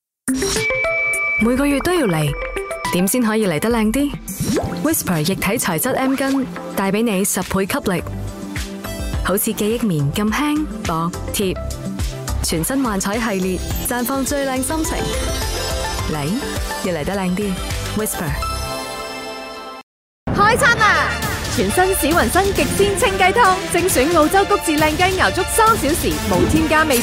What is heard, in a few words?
chinese cantonese commercial